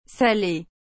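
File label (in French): "salée", French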